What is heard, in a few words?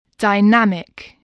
"dynamic", English